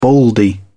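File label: "baldy", British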